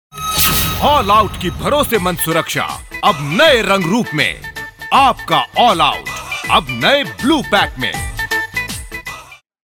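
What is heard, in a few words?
TVC hindi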